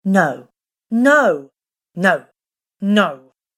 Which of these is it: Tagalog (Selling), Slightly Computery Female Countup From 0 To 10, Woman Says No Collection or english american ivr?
Woman Says No Collection